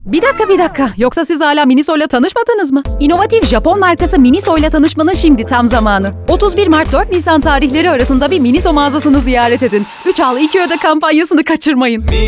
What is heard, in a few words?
radio; Miniso